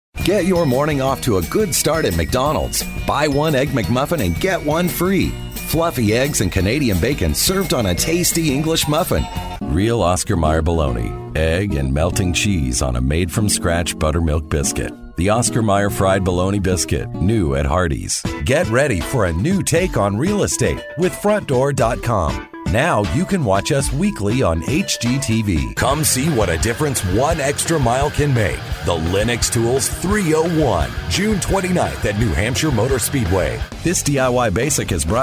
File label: MALE